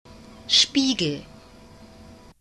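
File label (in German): "Spiegel" German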